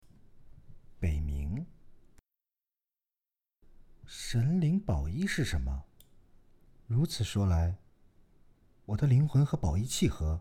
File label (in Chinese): 男播; 武道至尊叶铭